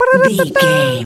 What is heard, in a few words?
voice fun character cute cartoon